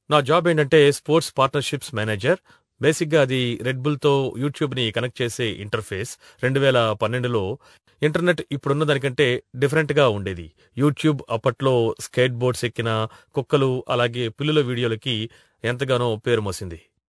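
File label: telugu male voice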